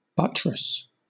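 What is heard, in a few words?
"buttress", British English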